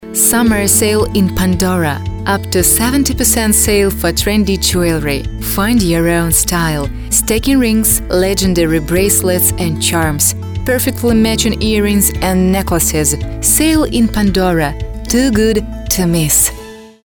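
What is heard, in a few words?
language English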